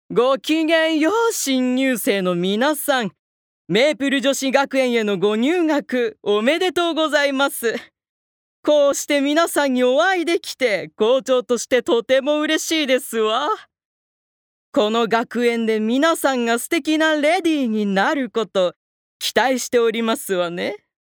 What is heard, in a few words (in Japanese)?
アニメ